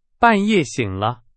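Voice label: Chinese, example sentence; hsk2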